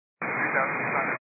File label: 122.925 MHz; scanner radio